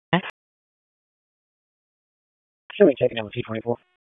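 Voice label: Police District Dispatch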